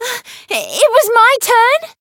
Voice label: chant

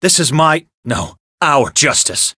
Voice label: variable chant

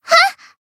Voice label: attack, jp; yuna

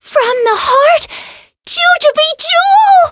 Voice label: Voice variable chant; melody

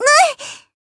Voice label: damaged, jp; yukino

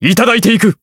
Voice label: variable chant, jp; joker

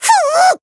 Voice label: attack jp; otoha

